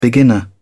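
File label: "beginner", en, uk British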